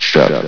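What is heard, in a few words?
"shut", male synthetic announcer voice; source engine; hl1; video games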